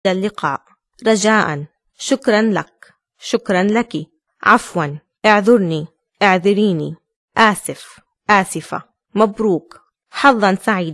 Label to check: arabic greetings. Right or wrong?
Right